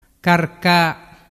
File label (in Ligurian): "carca", dialettu